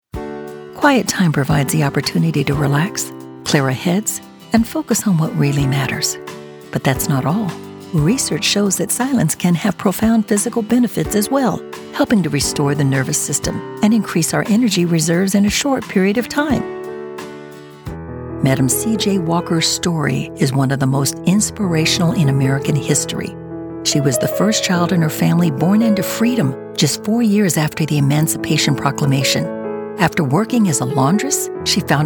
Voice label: Commercial